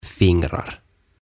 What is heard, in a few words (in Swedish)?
"Fingrar" Sormet